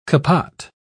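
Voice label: "capot", English, British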